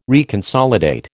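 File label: "reconsolidate", American English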